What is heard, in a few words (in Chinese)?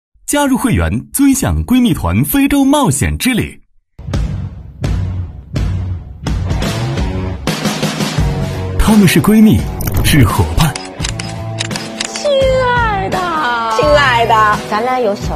男 广告 旁白 综艺节目 节目旁白; 东南卫视综艺节目; 非常闺旅行